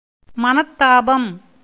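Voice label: "மனத்தாபம்", Tamil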